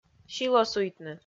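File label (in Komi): "силосуйтны", Komi, female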